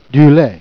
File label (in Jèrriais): "lait", Jèrriais